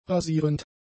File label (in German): "rasierend", German; adjectives